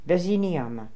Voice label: "Veziniama", pronounciations; Fourth Way; Beelzebub